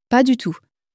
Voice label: "pas du tout", French